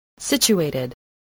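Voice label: "situated", spoken word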